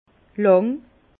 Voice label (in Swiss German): "lang", Bas Rhin; vin; longue